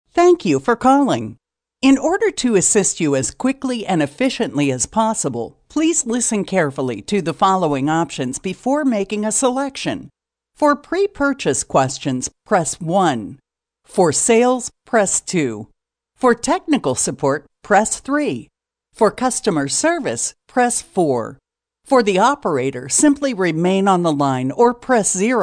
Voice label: female ivr